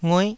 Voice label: "ngueh9", taiwanese syllables